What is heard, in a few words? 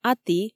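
Komi, female; а ті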